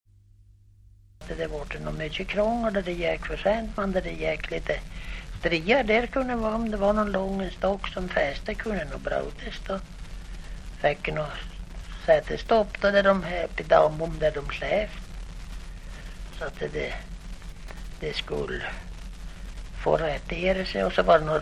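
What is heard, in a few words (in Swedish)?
Häggenås Jämtland, dialekter, Svenska sagor; Folkminnen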